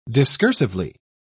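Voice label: "discursively", British English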